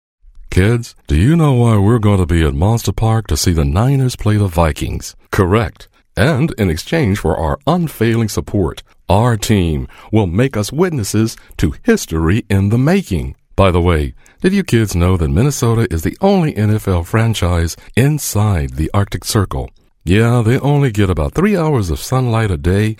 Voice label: Commercial; 49ers